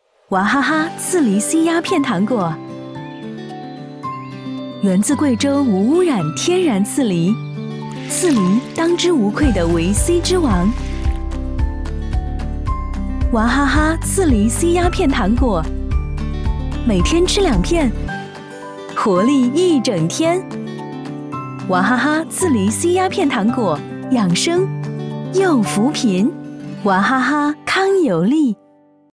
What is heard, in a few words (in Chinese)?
广告 食品饮料 自然, 女国; 哇哈哈刺梨C压片糖果